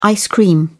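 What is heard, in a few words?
"ice-cream", British English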